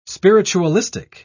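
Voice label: "spiritualistic", British English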